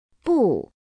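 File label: "不", Chinese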